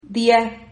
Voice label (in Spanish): "dia", Silabas